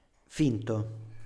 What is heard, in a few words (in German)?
"finto", Italienisch; künstlich, falsch; Standard-Italienisch